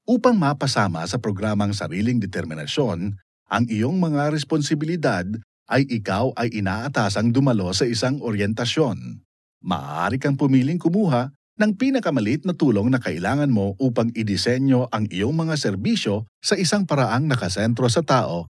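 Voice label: Tagalog e-learning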